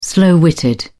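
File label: "slow-witted", British English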